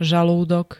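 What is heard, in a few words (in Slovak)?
"žalúdok", Slovak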